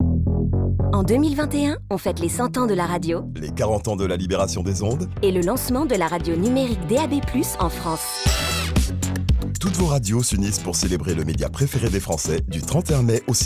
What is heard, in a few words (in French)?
SPOT RADIO; FETE RADIO 25 SEC